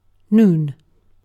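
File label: "noon", British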